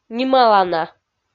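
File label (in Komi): "нималана", Komi, female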